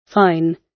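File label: "fine", British English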